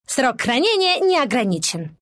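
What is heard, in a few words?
bottle, Russian; dota2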